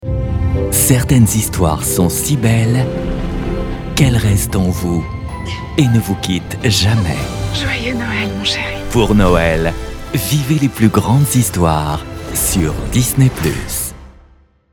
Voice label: emotion, voix off habillage

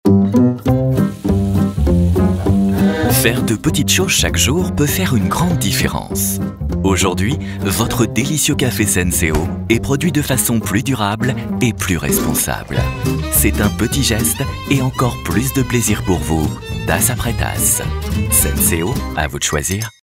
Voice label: bienveillant chaleureux; Senseo

